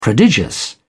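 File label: "prodigious", English, British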